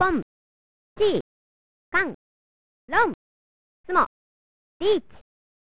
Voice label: "Hun-si-kont-loonght-tsumo-neets!" (Female); pro mahjong kiwame; snes

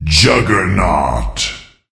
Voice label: Voices Announcer Reward

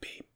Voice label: Voices quiet; The Looker

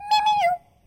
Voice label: Female; Good Pizza, Great Pizza

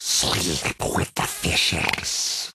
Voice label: Deep Devils Taunt

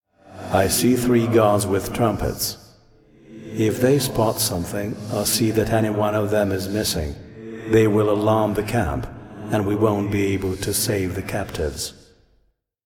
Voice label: Voice Dialog

